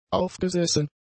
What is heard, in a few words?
"aufgesessen", German; adjectives